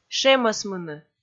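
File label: "шемӧсмыны", Komi, female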